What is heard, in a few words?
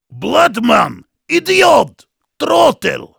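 jeers, German; Heavy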